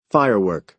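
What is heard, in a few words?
"firework", American English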